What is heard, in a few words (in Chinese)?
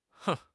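"哼", Chinese; HSK; HSK6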